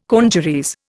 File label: "congeries", Indian